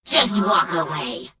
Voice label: Gnome Female Pissed; Death Knight